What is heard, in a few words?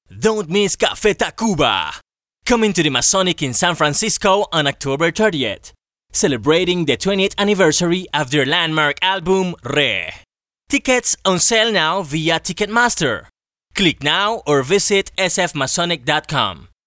Concert Promo